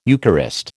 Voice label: "Eucharist", American